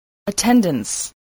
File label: "attendance", english